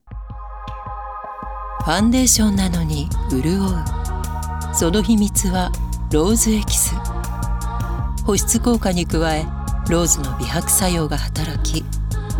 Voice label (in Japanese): CM 美容系、通販系